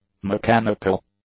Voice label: "mechanical", English; adjectives